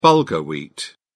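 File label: "bulgur", British English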